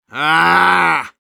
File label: negative vocalization, ru; Medic